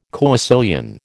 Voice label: "coecilian", American